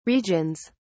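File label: "regions", British English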